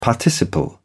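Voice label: "participle", British English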